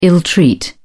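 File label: "ill treat", British English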